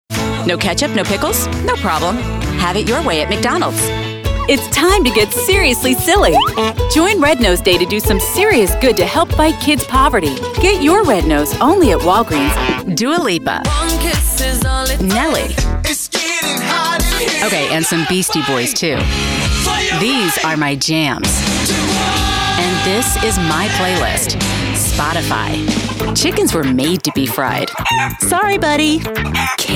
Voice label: Youthful Commercial